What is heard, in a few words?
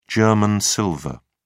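"german silver", British English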